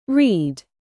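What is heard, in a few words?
"live", verb, British; read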